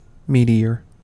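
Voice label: "meteor", US English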